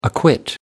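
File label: "acquit", English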